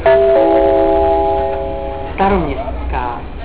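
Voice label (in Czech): příjezd, Vlaková hlášení, Pražské metro; Staroměstská.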